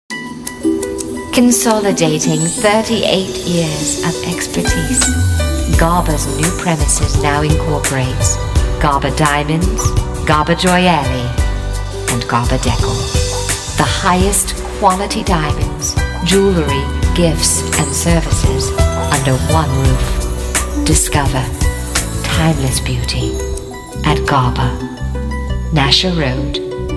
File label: radio; gabadiamonds; Short Film Voice Over Demo